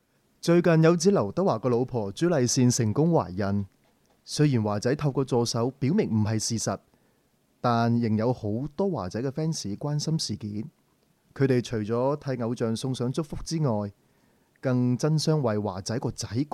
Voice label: news